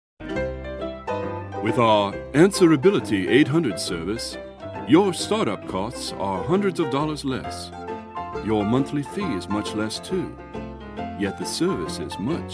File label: usa man